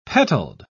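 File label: "petaled", British English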